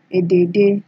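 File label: "edede", Nigerian Pidgin, female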